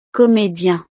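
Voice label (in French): "comedien", French; profesiones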